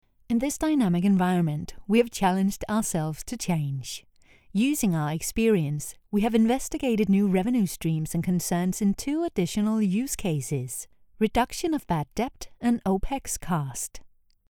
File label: informative English; O2 Telefonica